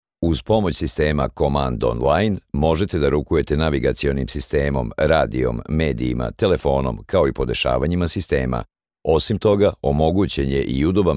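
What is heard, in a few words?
Serbian, male, instructional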